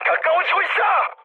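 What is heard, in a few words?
Korean near waypoint mood high, speech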